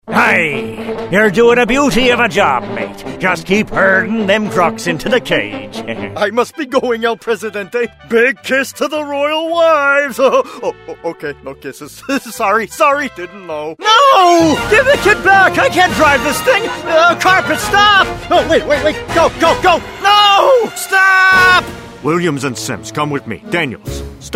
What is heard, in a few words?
Character